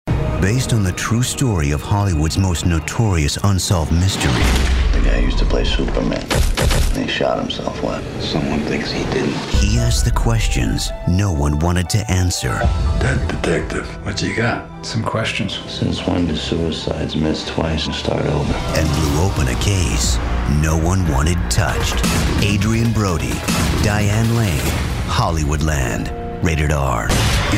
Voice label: men trailers